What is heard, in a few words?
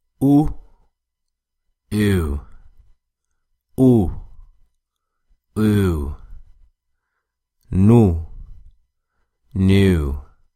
French u vs English u